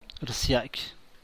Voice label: "rsily", San Mateo Macuilxochitl Zapotec; return; devolver

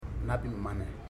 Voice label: "seventeen", Ipili

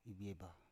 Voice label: "ibieba", Biak

